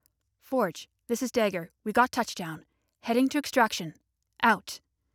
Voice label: Female